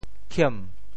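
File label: "khiem2", 嗛, Teochew; 潮州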